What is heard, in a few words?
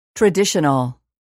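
"traditional", English word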